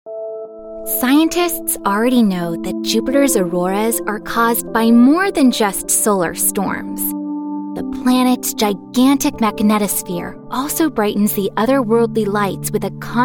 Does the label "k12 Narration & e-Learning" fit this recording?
Yes